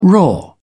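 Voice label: "raw", British English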